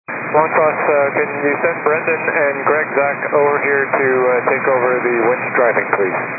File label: 122 725MHz, scanner radio